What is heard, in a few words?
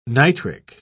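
"nitric", British English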